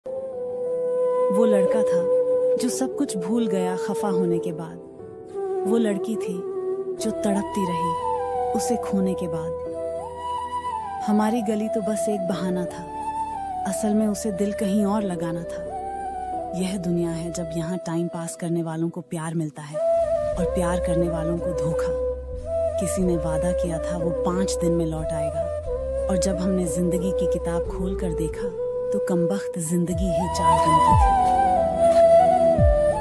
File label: hindi shayari couple shayari romantic hindi shayari hindi songs